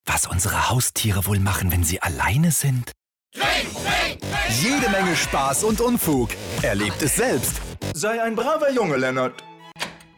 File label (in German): werbung pets